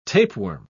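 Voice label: "tapeworm", English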